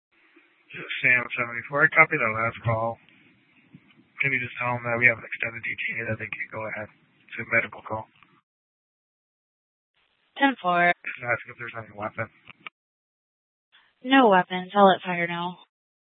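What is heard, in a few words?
Mesa Police Department Superstition Dispatch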